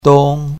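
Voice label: "dong1", Mandarin Chinese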